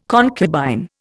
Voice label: "concubine", Indian